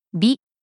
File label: Japanese pronunciation; beauty; bi